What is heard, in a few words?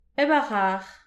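"hep ar charr", Breton; petit precis de prononciation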